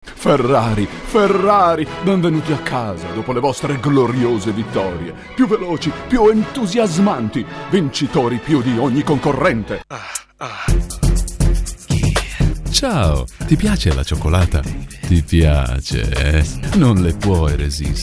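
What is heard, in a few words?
Italian, voiceovers other languages; foreign languages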